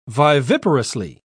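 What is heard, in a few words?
"viviparously", British English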